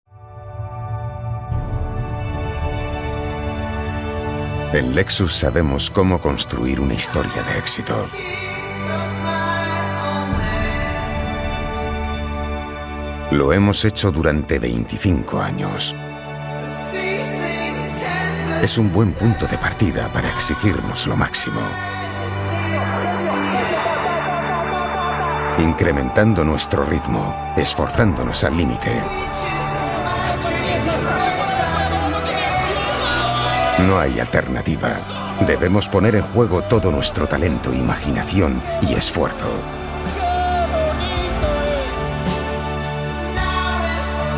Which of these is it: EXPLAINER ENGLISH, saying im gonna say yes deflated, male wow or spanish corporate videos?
spanish corporate videos